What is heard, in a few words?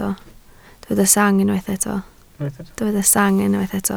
"sang", Welsh